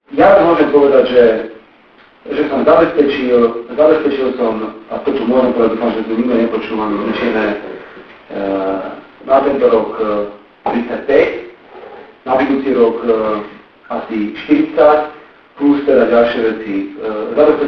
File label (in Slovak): radio hlas podobný Hríbovi; tyzden